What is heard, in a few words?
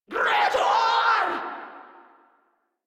Reload Grineer Female; Warframe